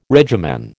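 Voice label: "regimen", American English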